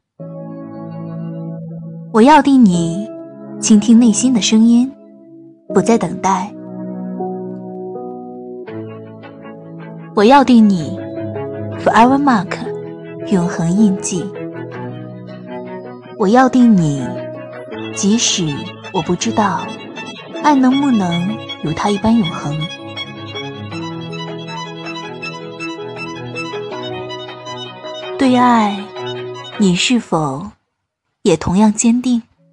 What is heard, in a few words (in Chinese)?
商业广告; 永恒印记